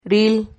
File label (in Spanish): "ril", Silabas